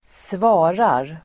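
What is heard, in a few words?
"svarar", Swedish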